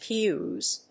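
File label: "PEWs", English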